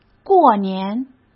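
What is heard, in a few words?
"过年", Mandarin Chinese; hsk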